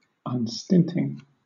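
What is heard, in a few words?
"unstinting", British English; pronunciations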